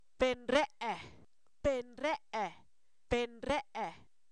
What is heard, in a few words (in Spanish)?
borracho, Zapoteco, san miguel albarradas; humano; INALI